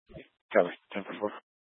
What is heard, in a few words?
Fire Dispatch